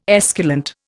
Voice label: "esculent", Indian

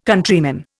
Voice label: "Countryman", Indian

